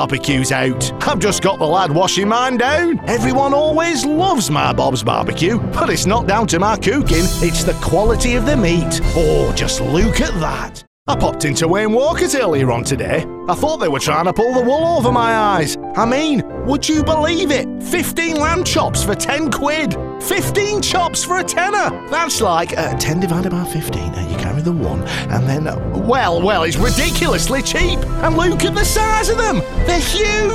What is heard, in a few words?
Bolton accent